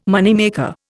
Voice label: "moneymaker", Indian